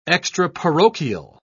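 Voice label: "extraparochial", British English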